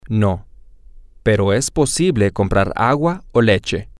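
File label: Spanish, male; no but it is possible to buy water or mi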